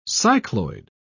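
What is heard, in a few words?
"cycloid", British English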